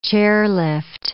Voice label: "chairlift", English